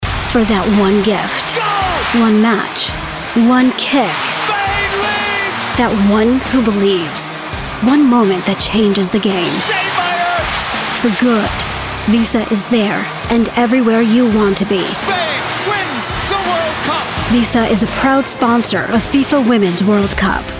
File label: Commercial Voiceover Grounded Inspiring; Visa; FIFA women's World Cup; Cinematic